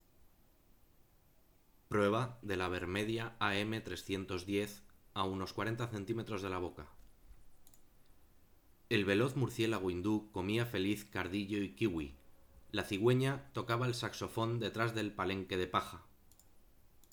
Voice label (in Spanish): Prueba voz 40 cm